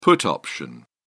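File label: "put option", British English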